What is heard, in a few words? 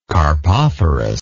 "carpophorus", English